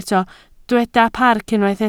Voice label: "parc", Welsh